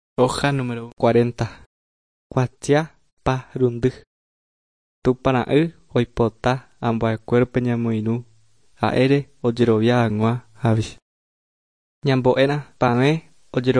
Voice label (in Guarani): guarani mbya; good news and words of life; bible